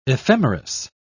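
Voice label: "ephemeris", English, British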